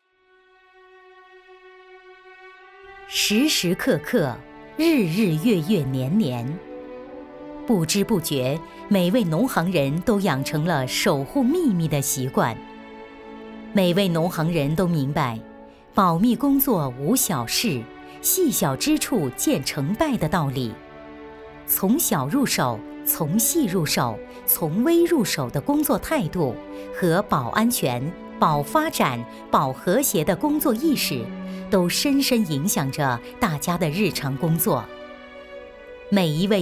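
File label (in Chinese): 专题深沉; 农行人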